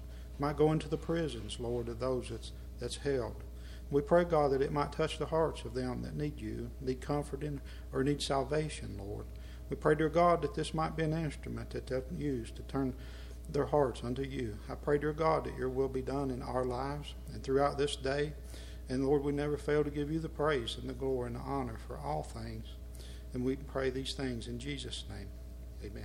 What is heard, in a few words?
Broadcast Prayer